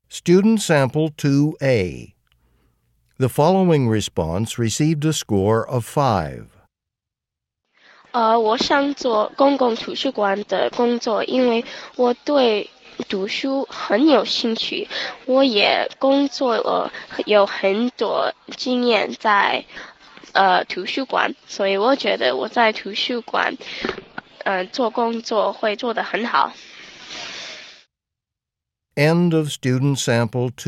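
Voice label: chinese conversation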